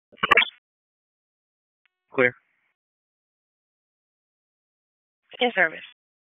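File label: EMS Dispatch; p25; wake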